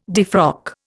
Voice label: "defrock", Indian